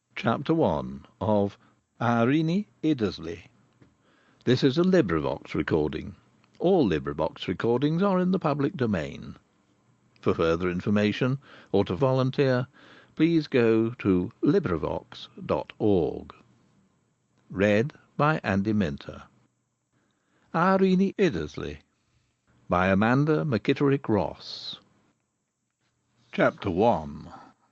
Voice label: audiobook; gigaspeech; 1-minute-audiobook